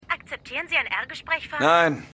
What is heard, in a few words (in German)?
Telefonstimme